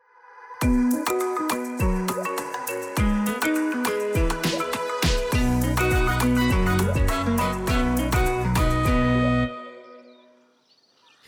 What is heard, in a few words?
Users Containment Ndebele; Sanitation; DWS; Audio Soundbites